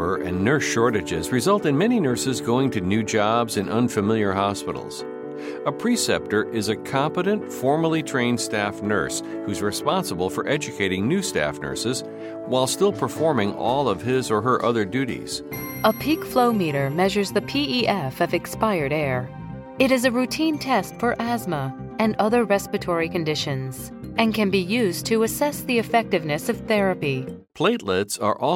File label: male female medical narration